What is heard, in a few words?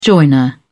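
"joiner", British English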